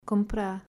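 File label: "Comprar", Portuguese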